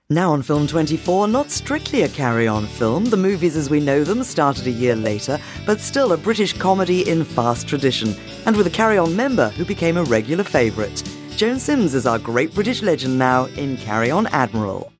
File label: continuity